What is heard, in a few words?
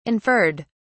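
"inferred", British English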